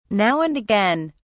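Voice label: "now and again", British English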